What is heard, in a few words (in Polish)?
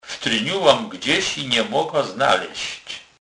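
"tlonec", kurpie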